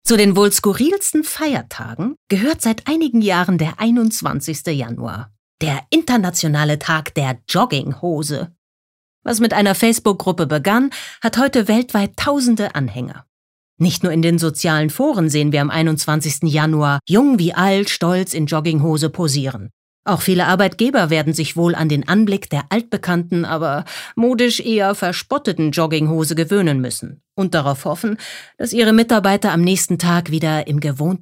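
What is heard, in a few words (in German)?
Kommentar, Neutral; Feiertag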